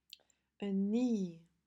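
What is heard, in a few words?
"A knee", English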